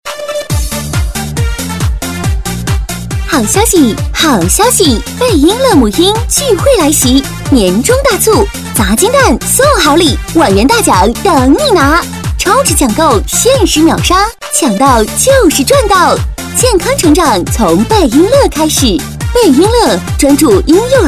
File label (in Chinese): 女 促销; 贝婴乐